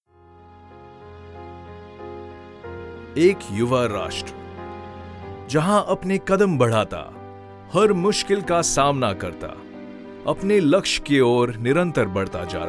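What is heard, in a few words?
corporate hindi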